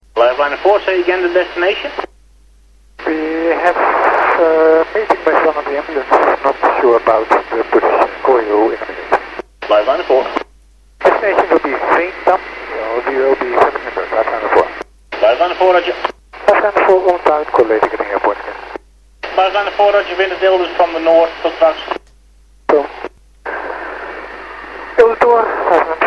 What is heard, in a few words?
lifeliner 4 scramble, twr 118.7 MHz; naar veendam nieuwe pekela; ehgg